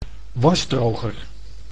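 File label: "wasdroger", Dutch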